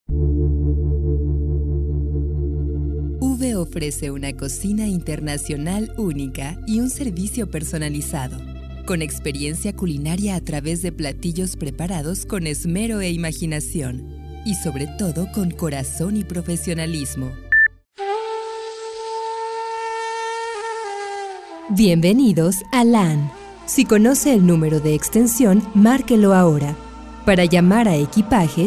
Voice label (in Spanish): spanish mexican corporate